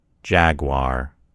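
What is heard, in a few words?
"jaguar", American English; gcompris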